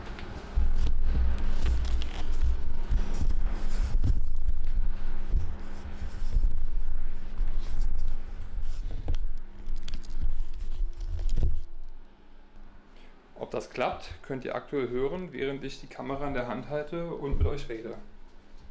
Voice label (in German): Aufnahme Sony A7 III und Sennheiser MKE 200